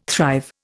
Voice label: "thrive", Indian

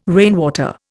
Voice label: "rainwater", Indian

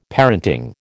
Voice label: "parenting", American

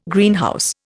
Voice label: "Greenhouse", Indian

